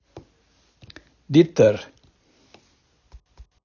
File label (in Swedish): "ditter", ditåt, daladialekt; På gagnsmål säger man: / ditter